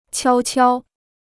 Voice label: "悄悄", Chinese

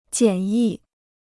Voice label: "简易", Chinese

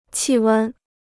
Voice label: "气温", Chinese

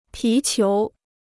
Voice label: "皮球", Chinese

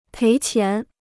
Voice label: "赔钱", Chinese

